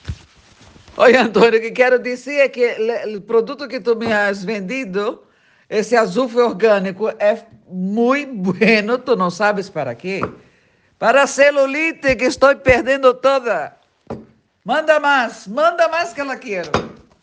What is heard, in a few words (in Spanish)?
TESTIMONIO